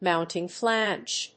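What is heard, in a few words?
"mounting flange", English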